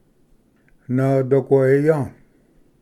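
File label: "nvdoqueya", Cherokee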